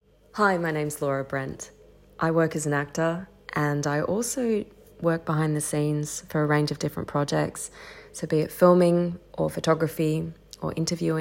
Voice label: chat